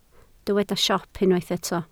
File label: "siop", Welsh